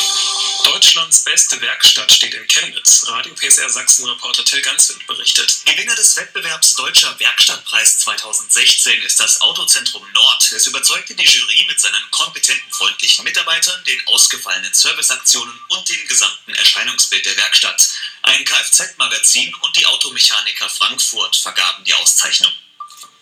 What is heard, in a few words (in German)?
radio nachrichten; radio psr